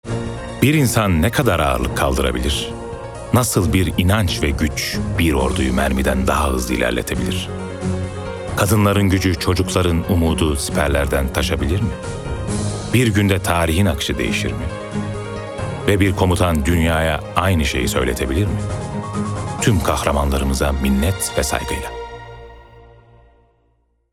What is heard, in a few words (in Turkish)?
Duygusal ve Destansi